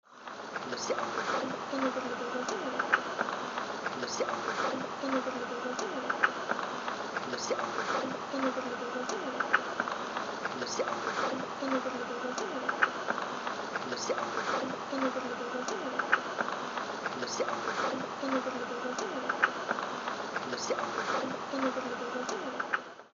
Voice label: Gibberish